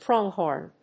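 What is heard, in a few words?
"pronghorn", English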